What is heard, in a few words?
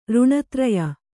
"ಋಣತ್ರಯ", kannada